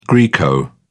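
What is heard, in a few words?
"greco", British English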